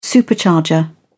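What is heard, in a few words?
"supercharger", English, uk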